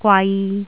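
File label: "gwai3", Cantonese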